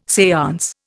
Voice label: "seance", Indian

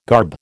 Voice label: "garb", American

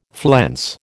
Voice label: "flense", American

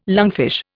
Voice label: "lungfish", Indian

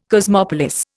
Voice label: "cosmopolis", Indian

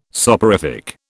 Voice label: "soporific", British

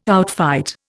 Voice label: "outfight", Indian

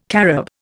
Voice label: "carob", Indian

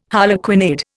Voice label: "harlequinade", Indian

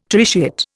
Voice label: "tritiate", Indian

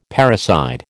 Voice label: "parricide", American

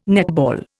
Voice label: "netball", Indian

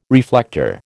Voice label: "reflector", American

